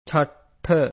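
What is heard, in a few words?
"chattha", Pali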